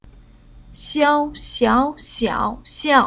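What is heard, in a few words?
"Xiao", chinese syllable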